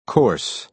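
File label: "course", American English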